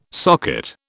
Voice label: "socket", British